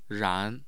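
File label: "ran2", Mandarin Chinese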